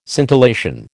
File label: "scintillation", American